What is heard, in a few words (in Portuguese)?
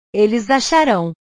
"eles acharao", portugues; verbos